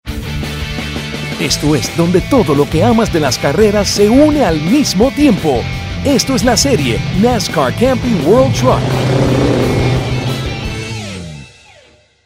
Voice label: tough and gritty